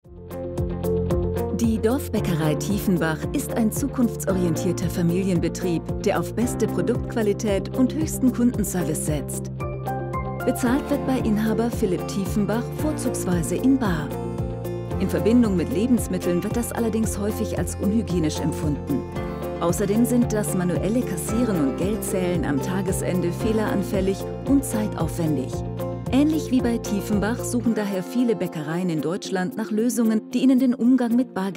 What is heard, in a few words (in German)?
Imagefilm; CASHINFINITY